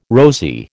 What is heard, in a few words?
"rosy", American